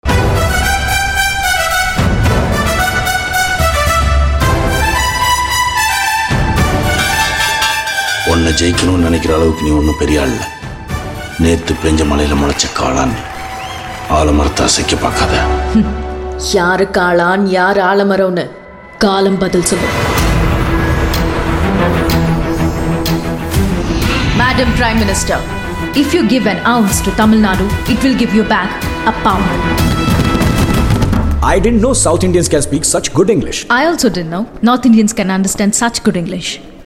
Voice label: Dialogue Ringtone, Tamil; Thalaivi Trailer